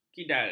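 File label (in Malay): "kidal", Malay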